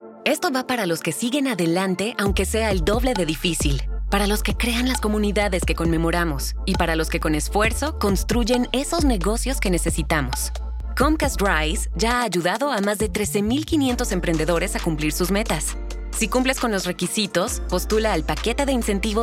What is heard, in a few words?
Spanish; Comcast Rise 2024